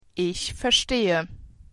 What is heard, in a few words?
understand, german